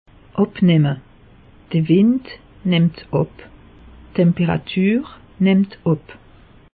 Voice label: "abnemme", alsace; meteo

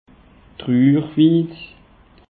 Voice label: "truurwiid", alsace; peche

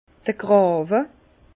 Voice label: "grawe", Bas Rhin; vin; tranchée

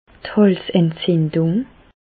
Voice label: "halsentzindung", Bas Rhin; medecine; angine

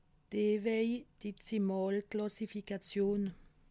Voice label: "dewey", alsace

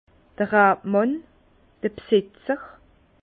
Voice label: "rabmann", Bas Rhin; vin; propriétaire de la vigne

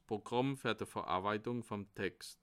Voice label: "programm", alsace

